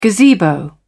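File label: "gazebo", British English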